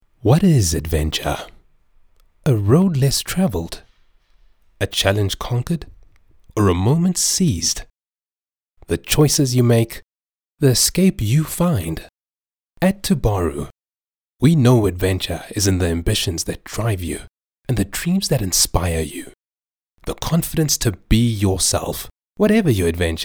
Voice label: English Conversational; OSM Talent